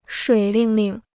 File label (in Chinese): "水灵灵", Chinese